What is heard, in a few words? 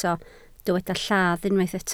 "lladd", Welsh